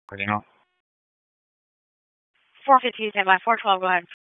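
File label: Police Downtown District Dispatch, p25; Raleigh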